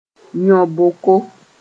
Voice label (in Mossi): "yõ-boko", moore